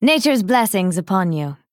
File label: Female Dryad Greetings